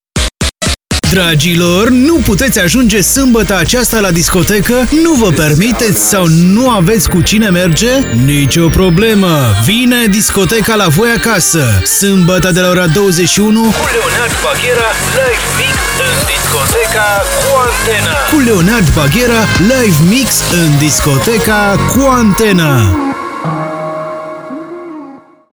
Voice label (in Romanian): Promo; DCA